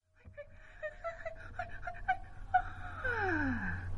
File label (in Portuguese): Dialogo, Fad Out; Dialogo 1